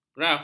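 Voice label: "graf", Malay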